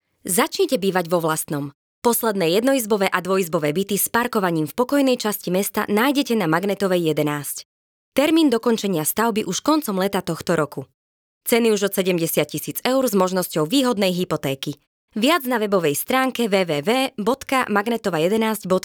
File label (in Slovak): VO Prirodzeny